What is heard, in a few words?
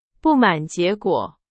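Chinese, example sentence; hsk2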